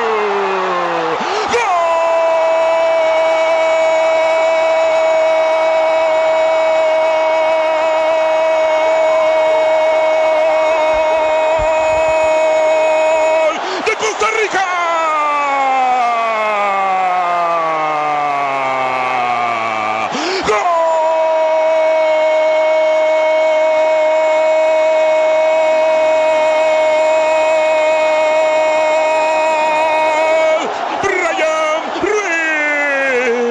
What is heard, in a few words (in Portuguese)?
LOCUTOR COSTA RIQUENHO NARRA GOL; GOL DA COSTA RICA NA COPA DE 2014